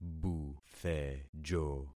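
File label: "buthejo", concatenated syllables; Affixing experiment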